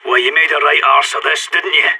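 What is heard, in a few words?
scared emote, voice; agents; sas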